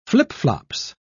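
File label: "flip-flops", British English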